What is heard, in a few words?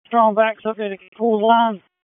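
FIRE Operations, p25; FIRE OPS 2; wake p25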